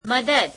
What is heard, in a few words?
gujarati, Help